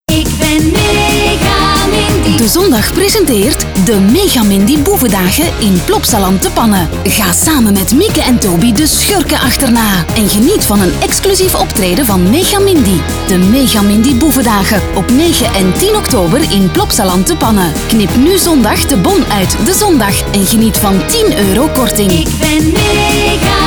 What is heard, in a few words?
commercial; megamindy boevendagen plopsa